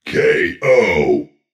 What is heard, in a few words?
booming male narrator "ko"